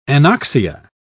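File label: "anoxia", British English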